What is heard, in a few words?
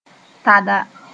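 "tada", Mooré; total refusal to cooperate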